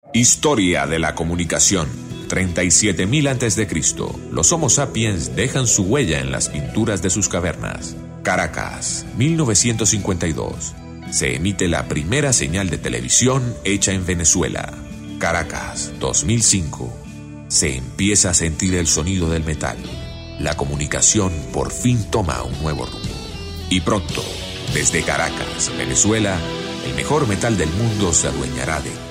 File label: spanish latin venezuelan promo